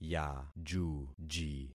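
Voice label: "yajuji", concatenated syllables; Affixing experiment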